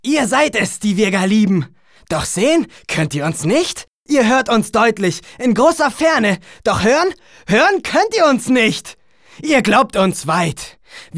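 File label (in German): aggressiv, Männer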